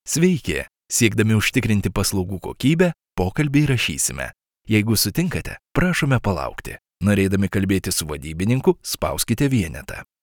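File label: Lithuanian male ivr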